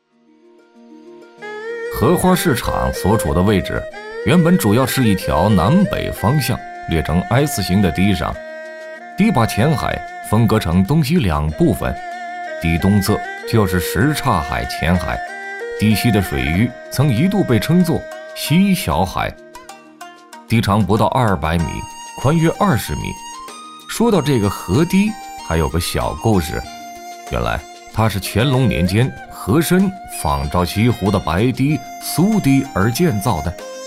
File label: male chinese voice over talent narrative